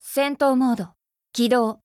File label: Voice JP; Phaetusa